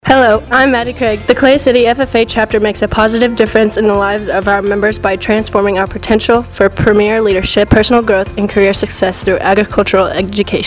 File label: female; Clay City FFA Week